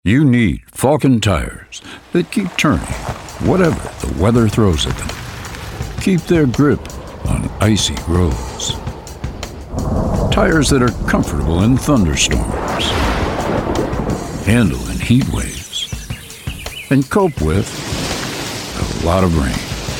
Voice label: commercial; 20-30s; US; Young, friendly and warm

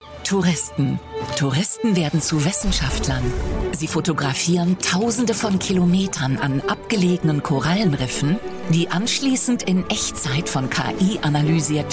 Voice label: German

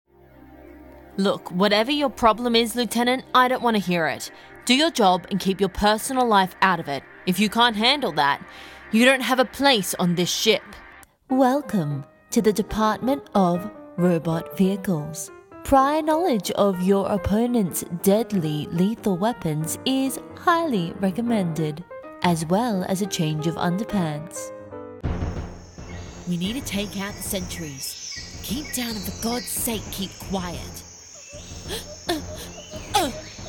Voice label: GAMING VO Aus USA UK Dark, female

